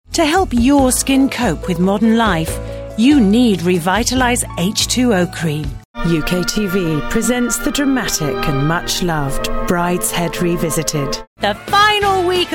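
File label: British English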